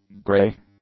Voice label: "grey", english; adjectives